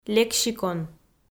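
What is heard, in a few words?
"лексикон", Komi, female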